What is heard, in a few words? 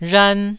"rhan1", taiwanese